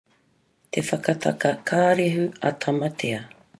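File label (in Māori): "te whakataka karehu a tamatea", Māori word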